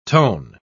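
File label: "tone", English pronunciation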